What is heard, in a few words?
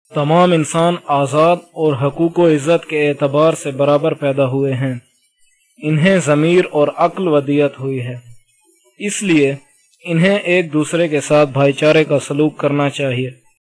Urdu